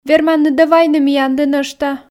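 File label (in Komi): верманныд-ӧ вайны миянлы нӧшта, Komi, female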